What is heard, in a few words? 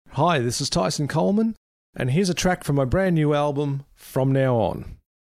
Radio Intros